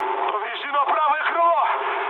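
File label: right wing, mood med, Russian; War Thunder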